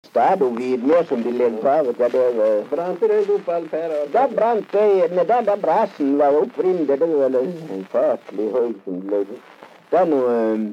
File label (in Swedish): dialekter; Folkminnen